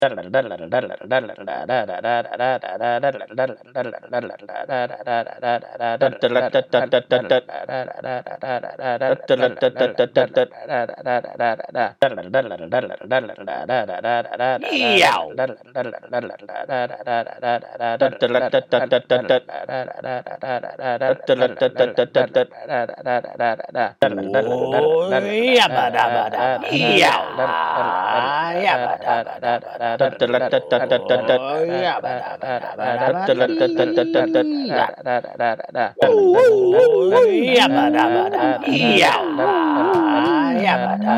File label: Literally Me Babbling at the Computer